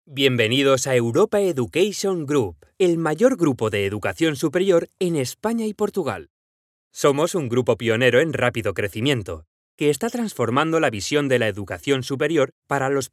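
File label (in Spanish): Video Promocional Corporativo, voz en off; Promotional Video – Corporative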